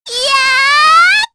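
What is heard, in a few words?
Vox Casting, korean; Hanus; kingsraid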